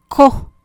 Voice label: "koj", Maya; diente